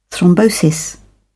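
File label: "thrombosis", British English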